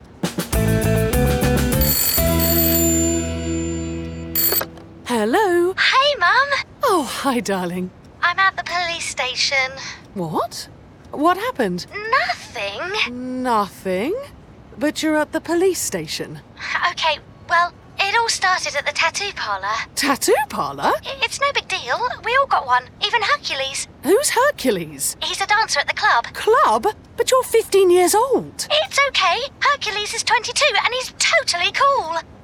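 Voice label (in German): UK English, Variabel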